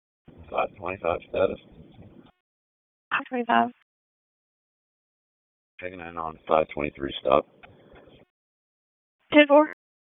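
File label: Raleigh Police Southeast District Dispatch, p25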